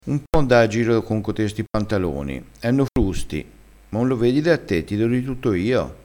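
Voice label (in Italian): "deo", dialettale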